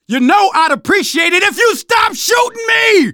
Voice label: friendly fire, manager, survivor, voice